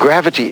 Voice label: LoFi Words, LoFi Vocals

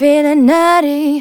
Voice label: Phrase Female; Vengeance Samples; Phrases

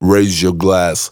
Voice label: Phrase Pitched; Vengeance Samples

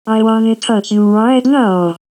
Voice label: Wanna Touch You (Robot); Vengeance Samples

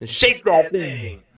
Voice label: male fx vox, vocal house, e; PS-28 Vocal House Samples

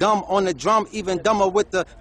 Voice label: DUMB ON THE DRUM, Hip-Hop Vocals Samples

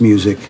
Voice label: LoFi Words, LoFi Vocals

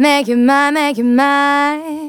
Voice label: Phrase Female; Vengeance Samples; Phrases

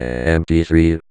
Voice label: Vocoder Words; Vengeance Samples

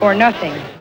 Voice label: LoFi Phrases, LoFi Vocals; Vengeance Samples

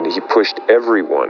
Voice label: LoFi Phrases, LoFi Vocals; Vengeance Samples

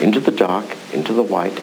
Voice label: LoFi Phrases, LoFi Vocals; Vengeance Samples

